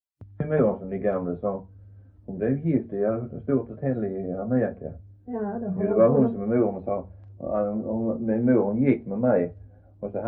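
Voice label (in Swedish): Röddinge Skåne, Svenska sagor dialekter; Folkminnen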